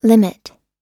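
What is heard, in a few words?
"limit", US English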